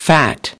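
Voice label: "fat", English; UNIT 5: HEALTHY LIVING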